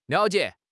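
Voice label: "liao3 jie3", Chinese; HSK; HSK3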